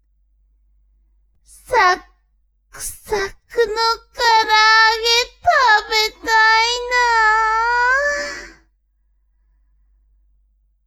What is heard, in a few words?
woman